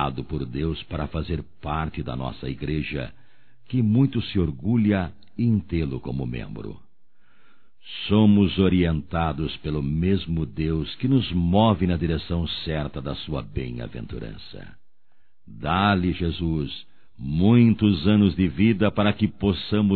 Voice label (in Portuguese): Aniversário Irmão em Fé Masculino; Aniversarios; Telemensagens 2017